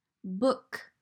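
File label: "Book", English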